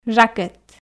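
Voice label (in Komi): "жакет", Komi, female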